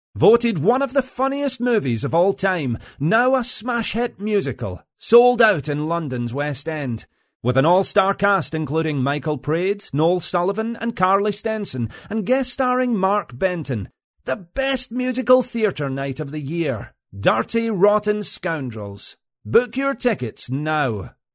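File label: English Scottish, male, voice over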